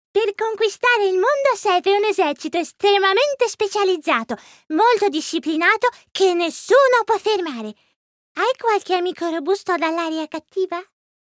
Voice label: ITA children cartoon

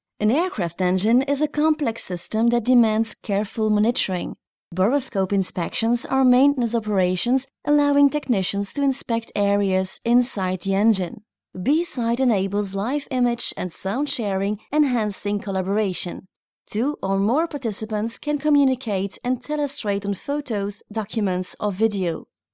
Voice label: Corporate Angl

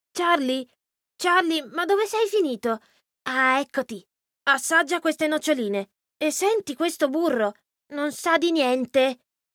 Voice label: ITA Character youngboy

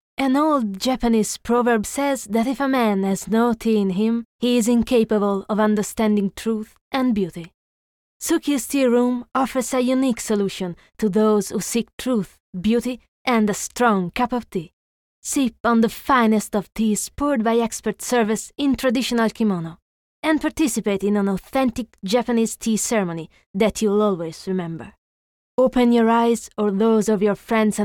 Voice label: ENG

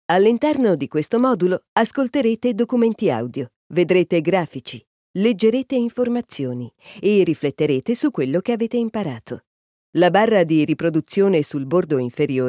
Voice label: ITA E-Learning

